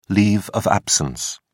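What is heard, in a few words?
"leave of absence", English, British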